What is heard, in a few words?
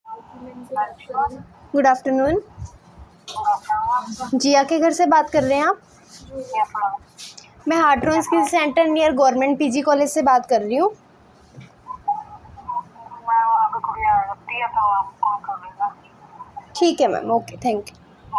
call recording